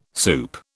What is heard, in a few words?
"soup", British